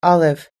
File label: "olive", us-english